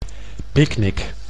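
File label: "picknick", Dutch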